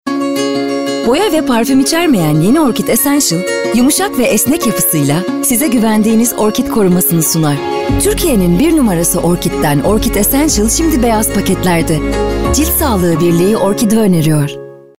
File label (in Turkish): türkçe seslendirme